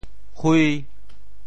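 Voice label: "hui1", Teochew; 啡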